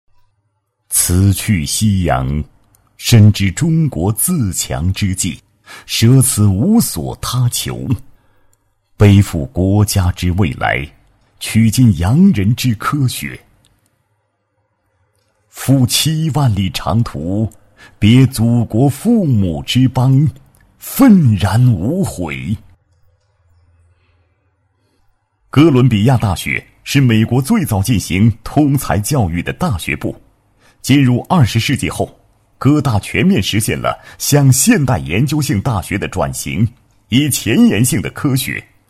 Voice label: 男 宣传广告 大学宣传; 哥伦比亚大学宣传

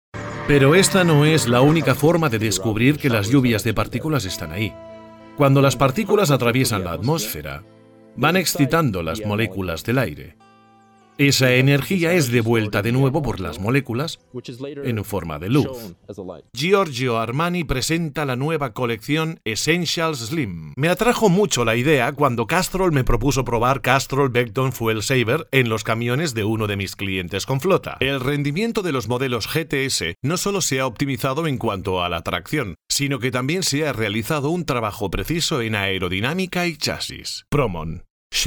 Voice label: 外籍西语男 叙述

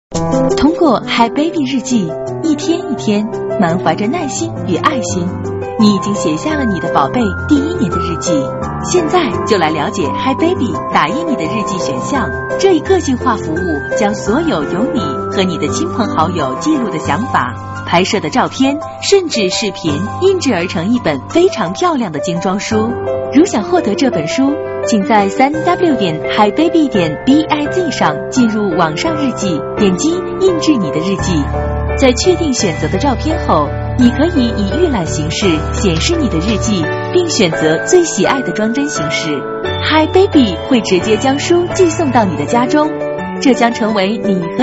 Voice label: 女 专题 温馨 产品介绍, 国语女声配音; HiBaby 打印你的日记

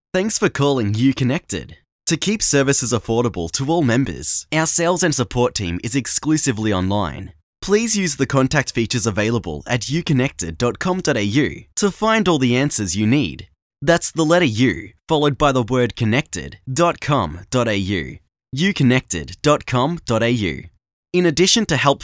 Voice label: 外籍英男 电话应答系统

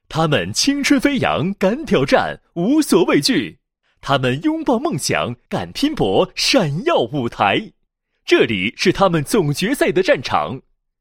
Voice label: 男, 广告; 维特拉全国总冠军

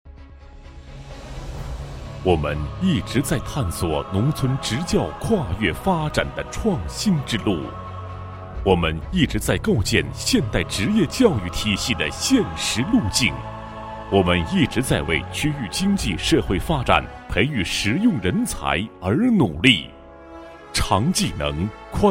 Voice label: 男 专题 农村职教学校, 国语男声配音; 泸县

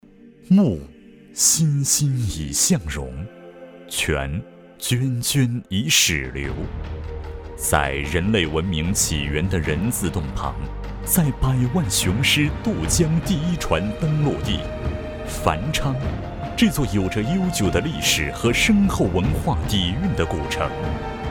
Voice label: 男 大气 宣传片, 浑厚磁性, 国语男声配音; 繁昌

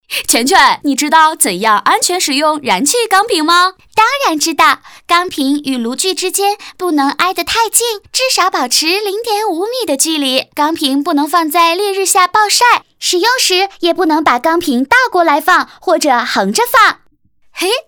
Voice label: 童声男女, 安全讲解小知识

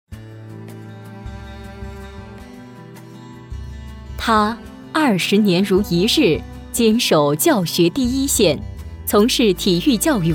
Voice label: 女 人物介绍; 不忘初心为人师

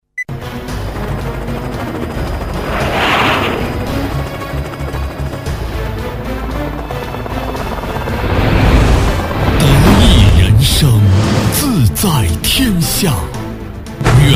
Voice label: 男40 品牌广告, 国语男声配音

